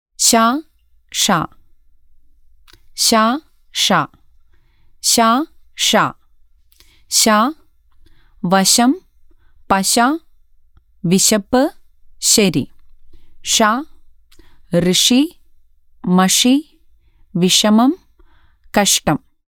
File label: Malayalam Recordings Basic Pronunciation